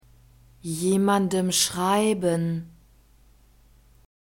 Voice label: "jemandem schreiben", German; pronouns personal dative

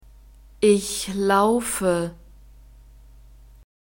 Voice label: "ich laufe", German; verbs present tense irregular

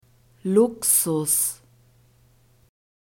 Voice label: "luxus", german; masculine

